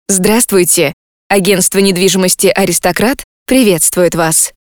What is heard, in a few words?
IVR